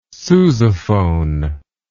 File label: "sousaphone", English